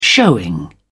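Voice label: "showing", British English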